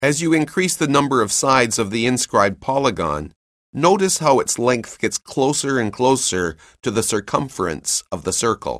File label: narration; CALCULUS 7E; cengage